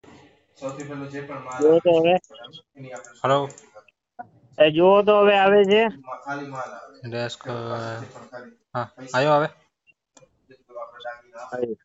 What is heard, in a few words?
Call recording